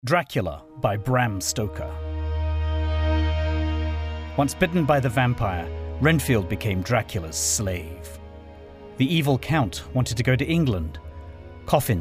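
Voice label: narration fiction; Dracula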